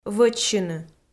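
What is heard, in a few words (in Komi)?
"вӧчсьыны", Komi-Zyrian, female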